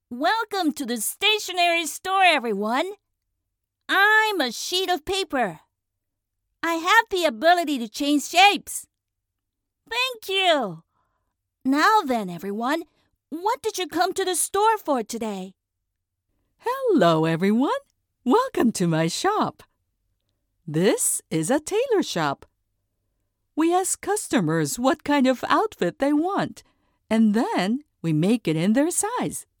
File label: English Young boy and Old lady